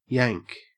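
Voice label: "yank", Australian English; pronunciations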